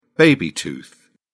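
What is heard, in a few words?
"baby tooth", British English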